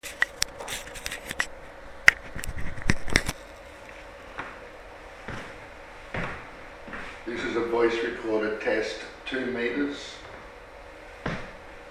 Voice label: Mini Voice Recorder Sample Audio